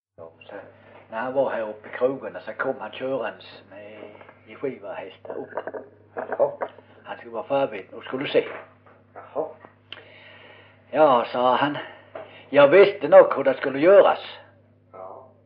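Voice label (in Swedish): Osby Skåne, svenska sagor dialekter; Folkminnen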